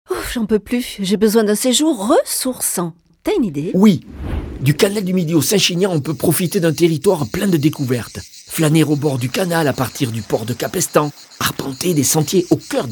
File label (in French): spot; canal du midi; virgin rfm montpellier